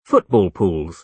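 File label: "football pools", British English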